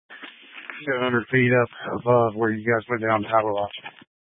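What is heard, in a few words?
Incident Tac 1, Scanner audio recording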